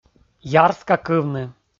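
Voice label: "ярскакывны", Komi, male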